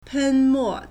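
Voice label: "pen1mo4", Mandarin Chinese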